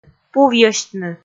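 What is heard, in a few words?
"пувйӧсьтны", Komi, female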